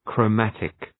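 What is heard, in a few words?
"chromatic", English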